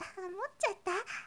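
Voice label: girl, "hamottyatta"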